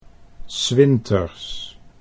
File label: "s winters", Dutch